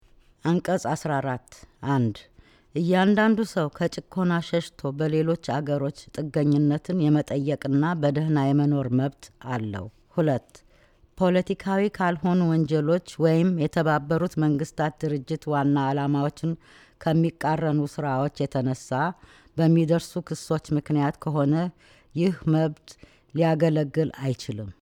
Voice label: Amharic

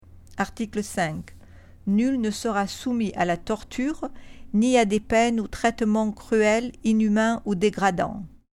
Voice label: French

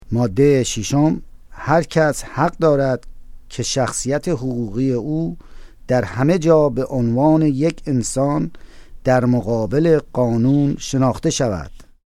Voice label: Persian

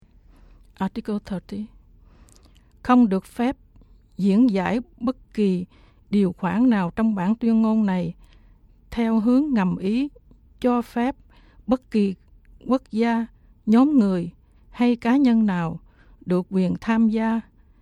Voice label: Vietnamese

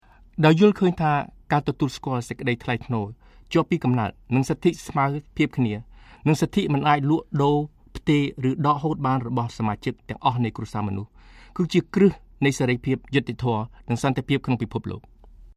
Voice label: Khmer

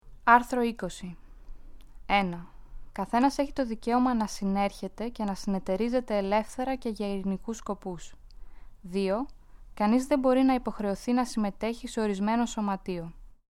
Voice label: Greek

